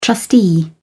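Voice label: "trustee", British English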